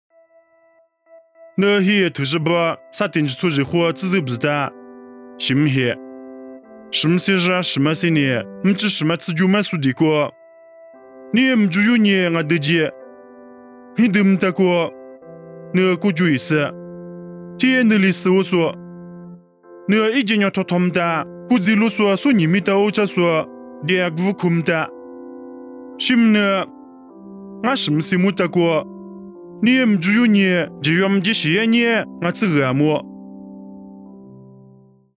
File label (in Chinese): 凉山彝语; 地中海撒狄